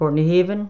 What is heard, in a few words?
"portnahaven", Placenames, islay